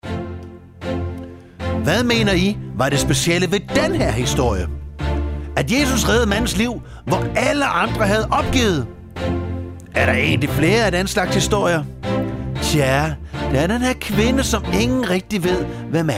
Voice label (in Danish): drama med speak; 3 Maria Magdalene